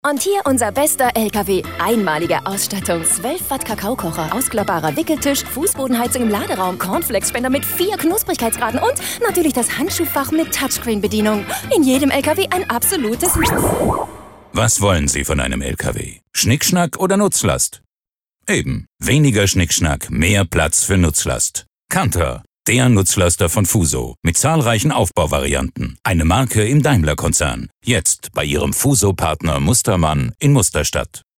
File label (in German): Werbung Dynamisch